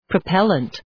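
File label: "propellent", English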